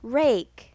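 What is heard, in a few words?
"rake", English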